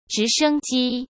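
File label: "直升机", Mandarin Chinese; chinese flashcards; hsk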